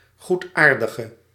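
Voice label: "goedaardige", Dutch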